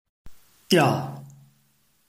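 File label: "diao", Chinese, tone 4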